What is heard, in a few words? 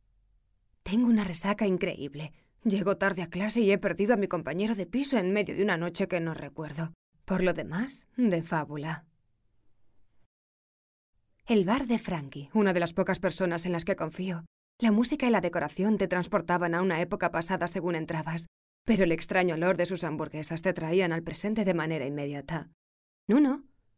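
spanish female character; voice demos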